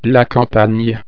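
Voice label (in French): "campagne", French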